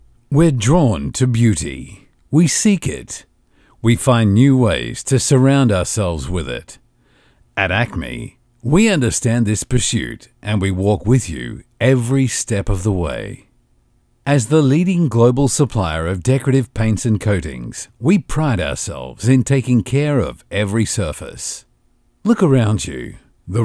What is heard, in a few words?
AU Mid Atlantic English Corporate, English Australian Male